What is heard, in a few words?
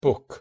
pronunciation en book